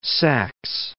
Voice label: "Saxe", English